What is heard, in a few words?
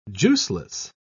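"juiceless", British English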